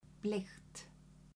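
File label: "bleikt", Icelandic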